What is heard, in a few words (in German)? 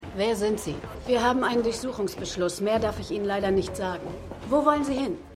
Polizistin; Suspicion